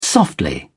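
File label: "softly", British English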